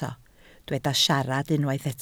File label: "siarad", Welsh